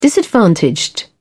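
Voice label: "disadvantaged", British English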